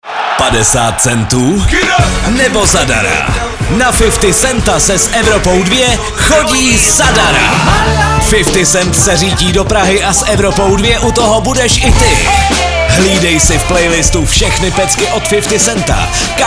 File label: promo; Poslouchej Evropu 2 a soutěž s námi; EVROPA 2